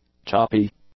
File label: "choppy", English; adjectives